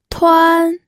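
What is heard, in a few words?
"tuan1", Mandarin Chinese, pinyin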